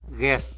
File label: "gues", tlacolula; pot